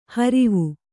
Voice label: "ಹರುವೆ", Kannada

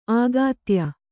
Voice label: "ಆಗಾತ್ಯ", Kannada; āgātya; noun; pretension.

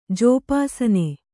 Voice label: "ಜೋಪಾಸನೆ", Kannada

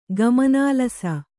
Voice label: "ಗಮನಾಲಸ", kannada

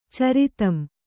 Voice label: "ಚರಿತಂ", kannada

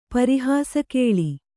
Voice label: "ಪರಿಹಾಸಕೇಳಿ", Kannada

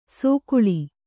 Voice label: "ಸೊಗುಳಿ", Kannada

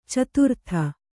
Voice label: "ಚತುರ್ಥಿ", Kannada

